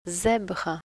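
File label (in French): "zebre", French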